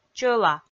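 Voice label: "чӧла", Komi, female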